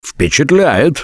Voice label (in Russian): Nice Shot, left4dead2 russian, Survivor Voice